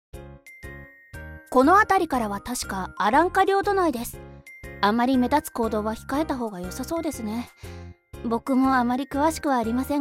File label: boy